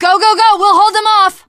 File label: teengirl, voice; bridge run; Left 4 Dead 2; l4d1 finale